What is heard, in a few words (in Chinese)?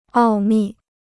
"奥秘", Chinese